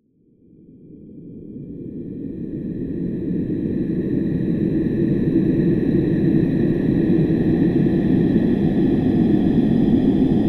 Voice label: Fry texture Prony